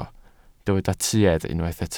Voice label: "tuedd", Welsh

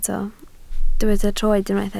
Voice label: "troed", Welsh

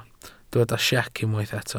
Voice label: "siec", Welsh

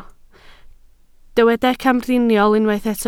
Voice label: "camdriniol", Welsh

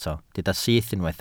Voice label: "syth", Welsh

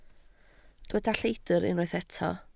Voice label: "lleidr", Welsh

